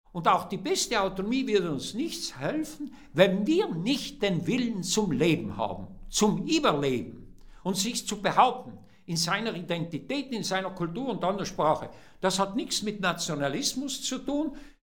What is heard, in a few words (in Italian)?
TEDESCO